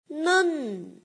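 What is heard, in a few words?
"neng", pinyin, fourth tone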